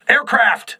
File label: voice message air alert, crew ships english